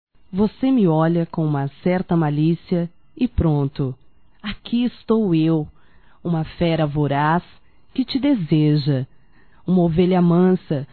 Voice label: ROMANTICA(F), Românticas, fem

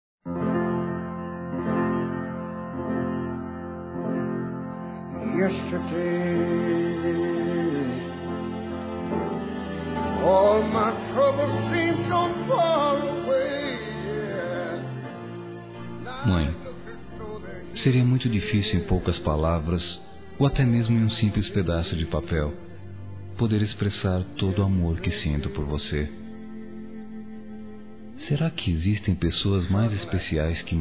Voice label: MINHA MÃE MEU ANJO, Telemensagens, Masc; Dia das Mães; Telemensagens 2017

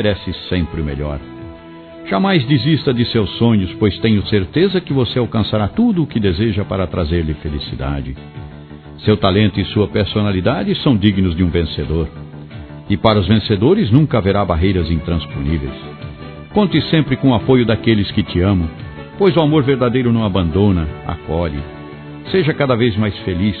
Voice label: Aniversário Sobrinho Masculino; Aniv Familiar

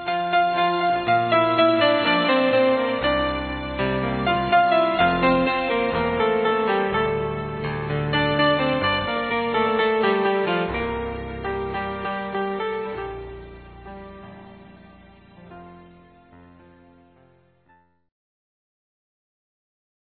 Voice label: COMPADRE M; Aniversários; Aniv Familiar

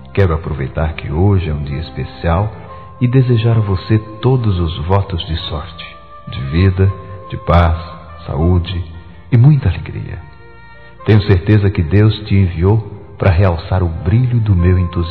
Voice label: ANIV. DE PADRASTO (M); Telemensagens 2017; Aniversários; ANIVERSARIO ADOTIVOS

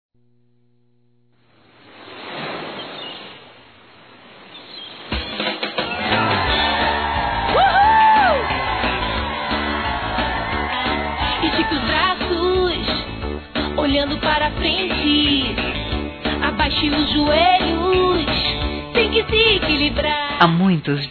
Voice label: Aniversário Filha Feminino; Aniversário Infantil; Telemensagens

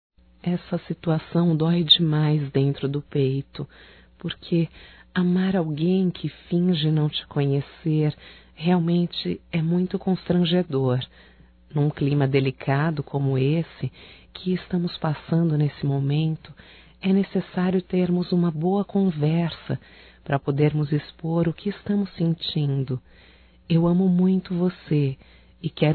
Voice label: INDIFERENÇA (F); Românticas

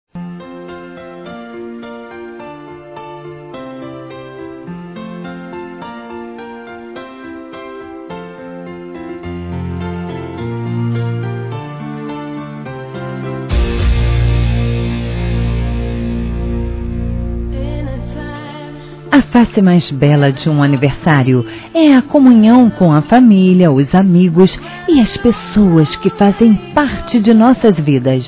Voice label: Neutro Feminino

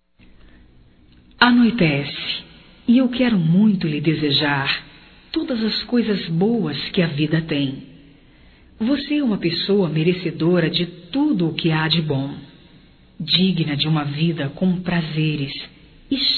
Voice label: Boa Noite Feminino; Bom dia, tarde e noite; Telemensagens 2017

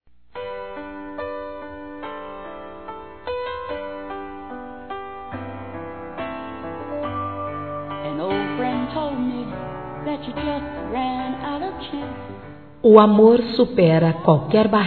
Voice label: Aniversário Casamento Geral Feminino, Telemensagens; Aniversários

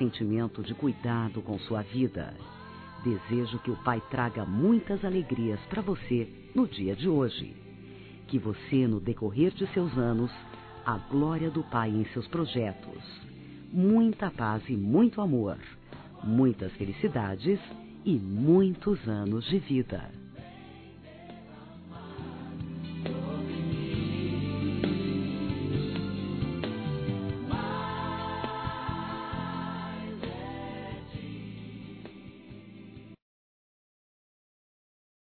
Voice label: Irmã Feminino, Telemensagens Aniversários; ANIV. Evangelico

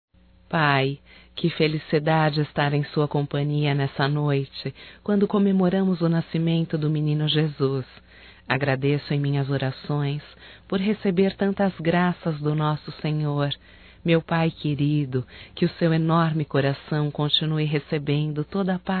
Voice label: NATAL ANO NOVO PAI, fem; Telemensagens 2017

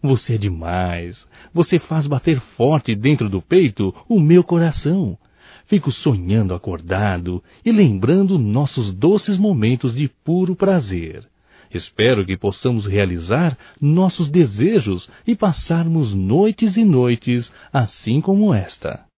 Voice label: AGRADECIMENTO ROMANTICO, Só Voz, masc; Telemensagens; AGRADECIMENTOS

